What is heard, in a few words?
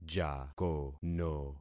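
"jakono", concatenated syllables; Affixing experiment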